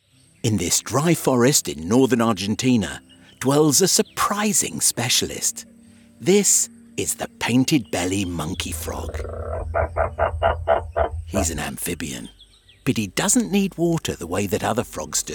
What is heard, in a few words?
Documentary